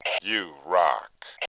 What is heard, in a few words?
rock, Male; voice; Tribes2